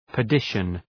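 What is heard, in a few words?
"perdition", English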